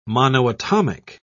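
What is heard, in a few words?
"monoatomic", English, British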